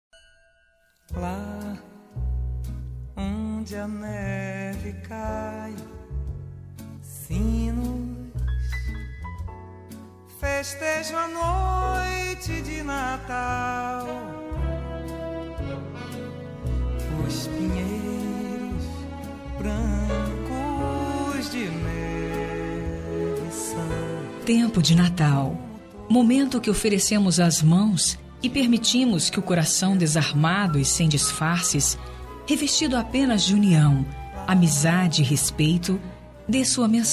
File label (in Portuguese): pessoa especial distante fem; Natal Romântico – Voz Feminina